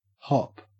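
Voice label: "hop", Australian English; pronunciations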